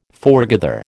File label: "foregather", American